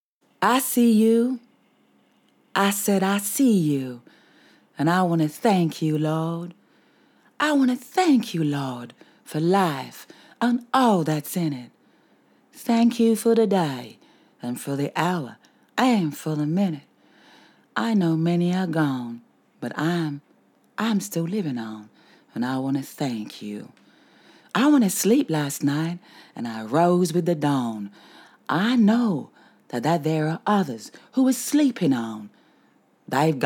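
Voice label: Jamaican, Accented English; voice demos; GoLocalise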